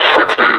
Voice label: "sixty", suppressor; Half Life Alyx; combine